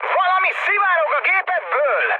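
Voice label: Hungarian tank damaged mood high, speech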